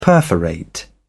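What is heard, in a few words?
"perforate", British English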